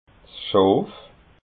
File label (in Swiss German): "schoof", alsace